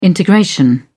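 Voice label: "integration", British English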